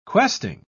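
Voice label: "questing", British English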